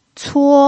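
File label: "cuo" first tone, Mandarin Chinese pinyin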